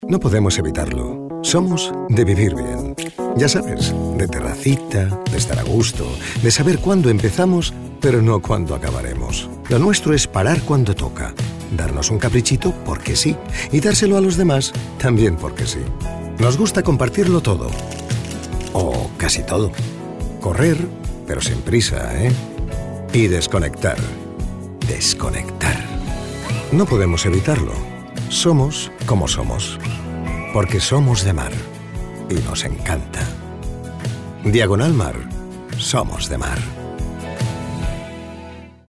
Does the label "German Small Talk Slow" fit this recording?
No